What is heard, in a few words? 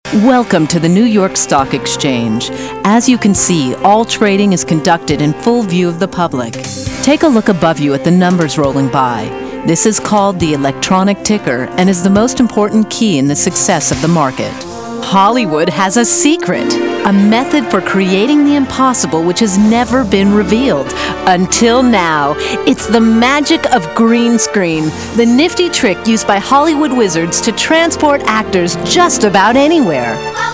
american advert; english course; AudioEnglish